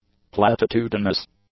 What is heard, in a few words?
"platitudinous", english; adjectives